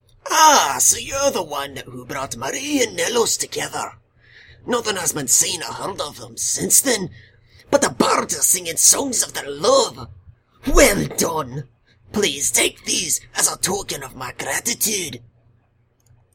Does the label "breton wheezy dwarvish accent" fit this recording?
Yes